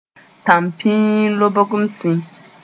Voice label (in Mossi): "tãmpĩir-lobg-m-sĩ", moore